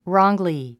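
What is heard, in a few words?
"wrongly", English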